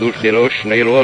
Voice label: backwards alien, Robotic or Stuttery or Spooky, vocals and spoken word